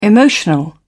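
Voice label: "emotional", British English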